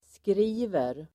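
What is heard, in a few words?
"skriver", Swedish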